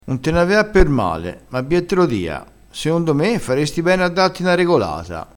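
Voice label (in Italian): "regolata", vocabolario dialettale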